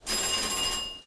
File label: Announce; eggomatic